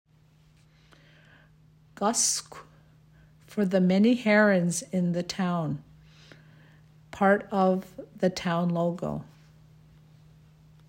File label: English translation; hampton community centre